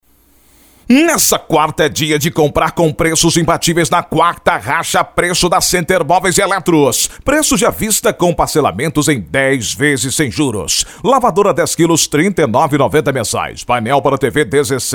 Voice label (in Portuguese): LOCUTOR; Demo Locutores